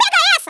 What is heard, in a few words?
"watch this", Portuguese; Worms Armageddon